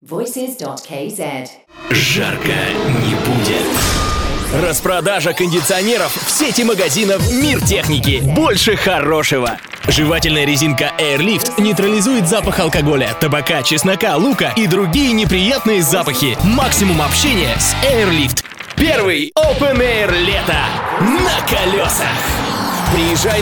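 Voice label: Russian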